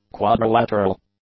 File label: "quadrilateral", English; adjectives